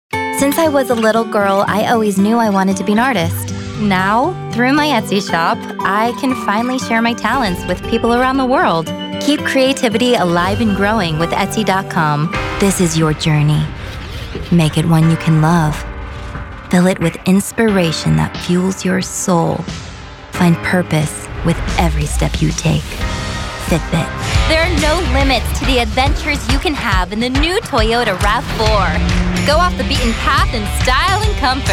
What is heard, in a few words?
Commercials